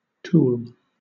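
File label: "tool", British English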